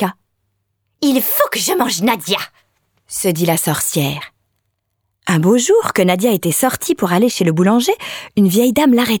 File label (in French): VOIX OFF CARTOON "Petite fille et autres personnages"